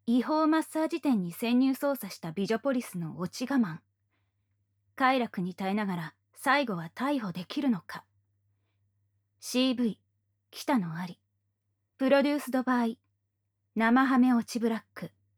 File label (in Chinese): 标题朗诵; 无SE; KU100; 潜入非法按摩店进行调查的美女警察的堕落忍耐～最终能否承受快乐，逮捕犯人!?～